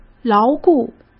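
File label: "牢固", Mandarin Chinese; hsk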